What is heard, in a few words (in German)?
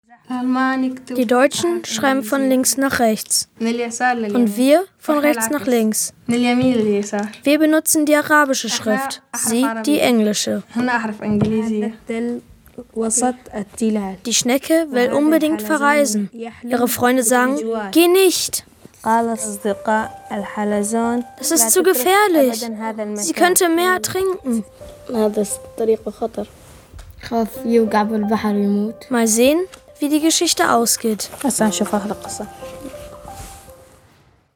syrisch